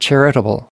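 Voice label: "charitable", US English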